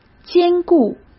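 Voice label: "坚固", Mandarin Chinese; chinese flashcards; hsk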